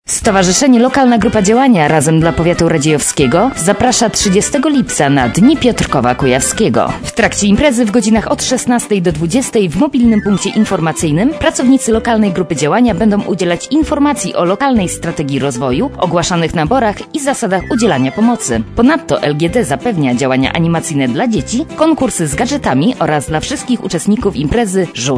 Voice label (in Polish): spot reklamowy